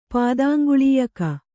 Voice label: "ಪಾದಾಂಗುಳೀಯಕ", Kannada

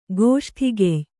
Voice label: "ಗೋಷ್ಠಿಗೆಯ್", Kannada; gōṣṭhigey

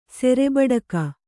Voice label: "ಸೆರೆಬಡಕ", Kannada; serebaḍaka; noun

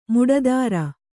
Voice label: "ಮಟ್ಟತರ", kannada

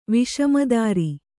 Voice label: "ವಿಷಮದಾರಿ", kannada

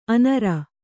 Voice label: "ಅನೂರು", Kannada

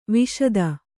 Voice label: "ವಾಶಿತ", Kannada

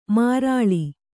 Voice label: "ಮಾರಾಳ್", kannada

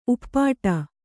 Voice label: "ಉಪ್ಪಟ್ಟ", Kannada

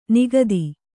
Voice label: "ನಿಖಾತ", kannada

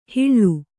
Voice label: "ಹಿಳ್ಳು", kannada

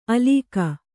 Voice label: "ಆಲುಕ", kannada

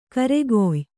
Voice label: "ಖೈರ್‍ಕಾಯಿ", Kannada

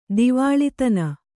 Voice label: "ದಿವಾಳಿತನ", kannada

